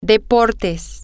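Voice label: sports, Spanish, female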